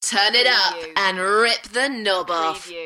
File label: UK female, Turn it up and rip the knob off; beanstalk audio